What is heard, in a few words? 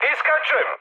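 Serbian bailout mood high, speech; War Thunder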